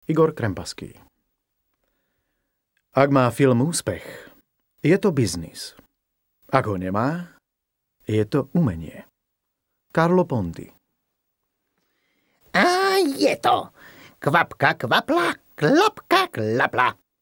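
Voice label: slovak male narration; voice demos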